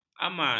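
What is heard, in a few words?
"amal", Malay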